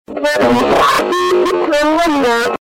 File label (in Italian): porta i tappi, donne